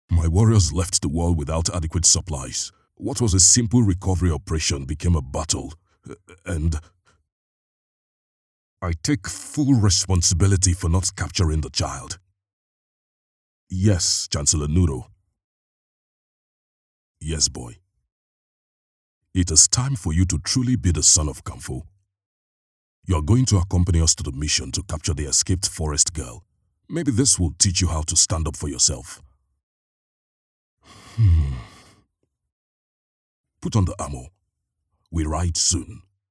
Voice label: english african animation